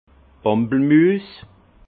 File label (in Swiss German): "pampelmuss", alsace; vin; pamplemousse